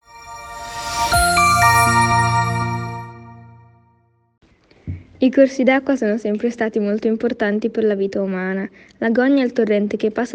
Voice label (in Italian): italiano; Agogna